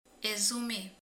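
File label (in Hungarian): "leves", Hungarian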